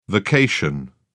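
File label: "vacation", British English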